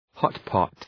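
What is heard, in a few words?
"hotpot", English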